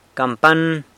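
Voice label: "campan", San Lucas Quiaviní Zapotec; bell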